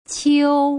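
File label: "qiu", Putonghua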